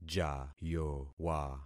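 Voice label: "jayowa", concatenated syllables; Affixing experiment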